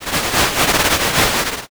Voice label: whisper